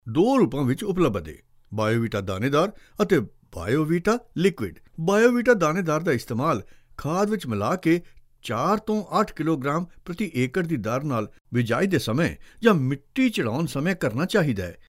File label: Commercial